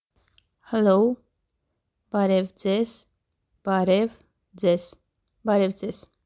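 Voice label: English "Hello", Armenian "Barev dzez", more formal – to greet a teacher, passer by, group of people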